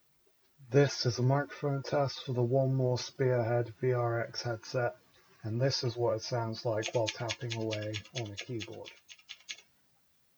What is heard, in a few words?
mic test; 1MORE